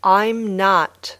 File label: "I'm not", English